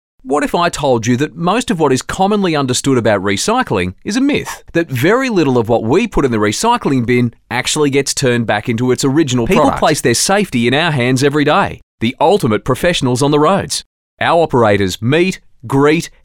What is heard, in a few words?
Natural